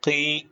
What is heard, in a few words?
short voyels arabic alphabet